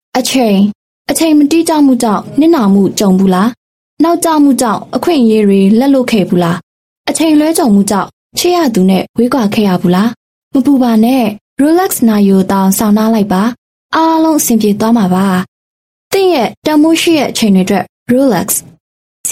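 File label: Burmese Female TVC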